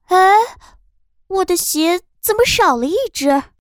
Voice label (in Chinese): 女